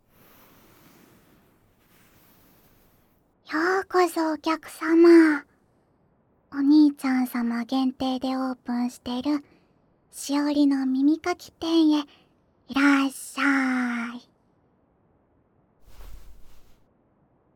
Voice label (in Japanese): 耳かきするね、お兄ちゃん, ASMR; 反転